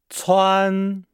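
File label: "cuan", Chinese; Nihao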